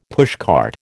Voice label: "pushcart", American

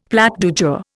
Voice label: "plat du jour", Indian; Dictionary

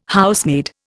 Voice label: "Housemaid", Indian

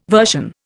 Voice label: "version", Indian

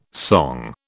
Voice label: "song", British

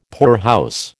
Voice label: "poorhouse", American

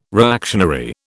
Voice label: "reactionary", British

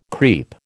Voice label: "creep", American

